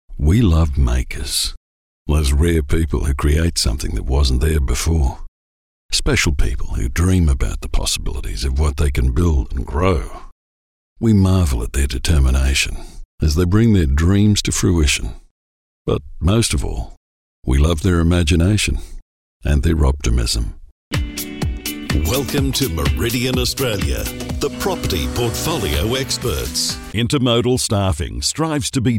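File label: Corporate, voiceover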